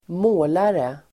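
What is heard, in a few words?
"målare", Swedish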